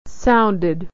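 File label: "sounded", American English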